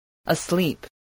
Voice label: "asleep", English